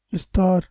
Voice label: "istar" Balochi